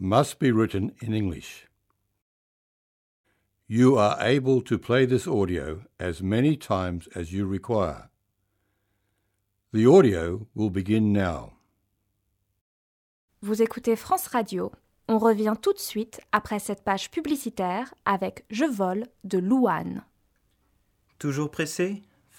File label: french text